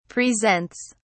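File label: "presents", British English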